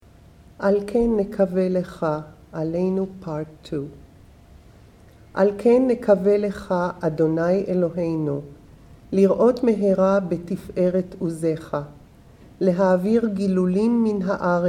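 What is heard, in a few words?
Aleynu, part 2, Al Kein N'kaveh L'cha, female; Shabbat Evening Readings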